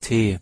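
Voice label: "t" German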